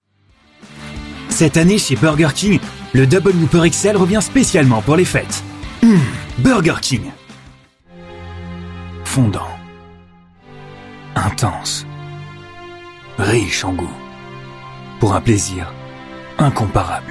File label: Commercial Voice